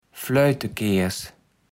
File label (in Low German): "Fleutekääs", Platt